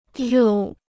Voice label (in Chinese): "皱", Chinese